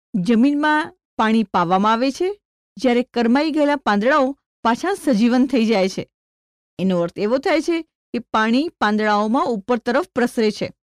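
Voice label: Gujarati female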